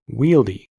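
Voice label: "wieldy", American English; pronunciations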